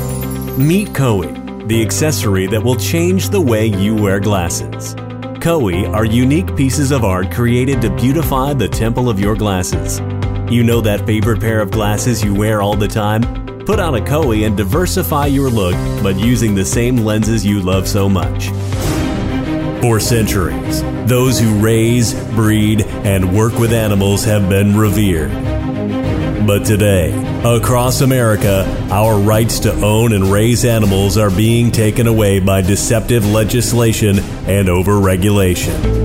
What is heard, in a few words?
Pro Voice Guy